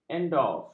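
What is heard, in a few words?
"endors", Malay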